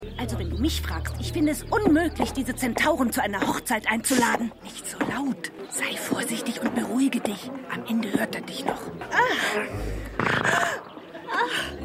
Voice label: Hochzeitsgaestin Mutter; Hercules

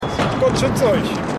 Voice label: Mann Mit Altbackwaren; The Wire

